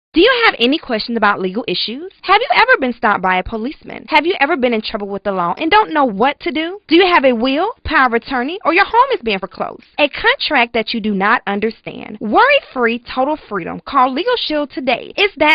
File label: RADIO COMMERCIAL; LEGAL SHIELD; GOSPEL ARTIST